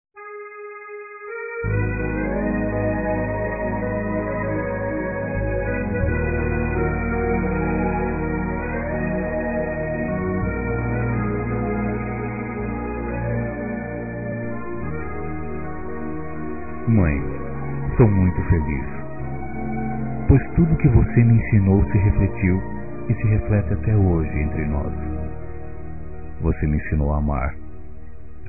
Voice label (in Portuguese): Dia das Mães Aniversariante Masculino; Telemensagens 2017